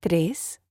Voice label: Spanish Number